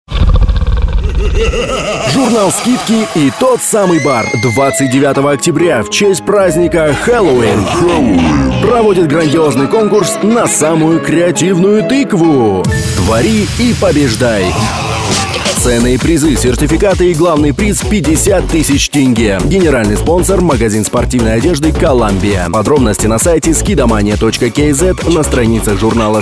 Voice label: halloween rus